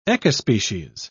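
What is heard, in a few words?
"ecospecies", British English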